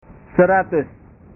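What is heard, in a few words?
"seratus", Indonesian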